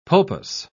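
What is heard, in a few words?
"pulpous", British English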